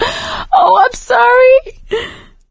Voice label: sorry, survivor voice; Left 4 Dead 2; producer